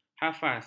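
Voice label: "hafaz", Malay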